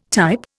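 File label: "type", Indian English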